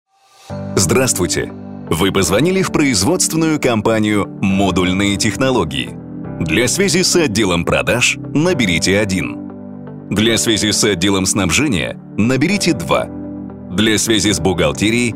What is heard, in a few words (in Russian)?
Мужской голос. Красивый баритон; Голосовое приветствие, автоответчик или меню IVR